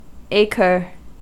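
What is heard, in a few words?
"acre", US English; pronunciation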